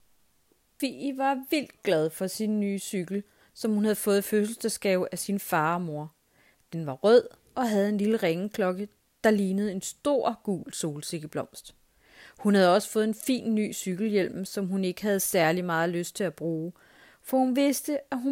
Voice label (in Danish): Kvinde